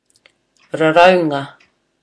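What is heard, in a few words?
"raraunga", Māori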